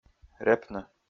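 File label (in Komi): "репны", Komi, male; kpv audio dict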